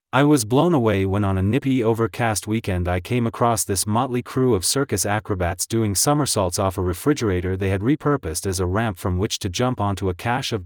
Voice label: Jumping Jacks Narration